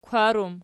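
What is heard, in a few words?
"kwar" plural, Archi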